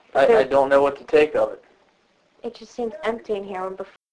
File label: Voice over; pedricktown residence